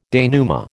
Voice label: "denouement", American